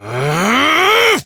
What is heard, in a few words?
Abil Exert Adult en